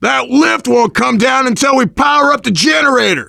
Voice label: finale setup, voice, biker; Left 4 Dead 2; left4dead2 dlc3; survivor